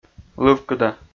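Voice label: "лывкыда", Komi, male; kpv audio dict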